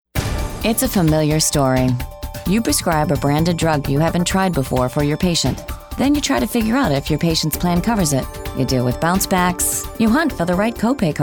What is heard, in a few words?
NARRATIONS